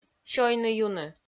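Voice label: "сёйны-юны", Komi, female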